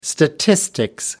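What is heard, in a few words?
"statistics", British English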